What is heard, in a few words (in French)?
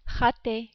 "rater", French